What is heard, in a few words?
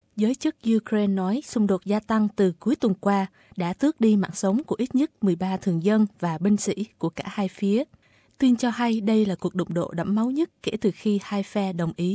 vietnamese; SBS Vietnamese; NATO kêu gọi Nga can thiệp để ngưng chiến tại Ukraine